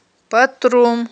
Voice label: "паттрум", Aghul